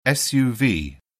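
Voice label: "suv", British English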